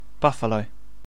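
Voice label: "buffalo", British English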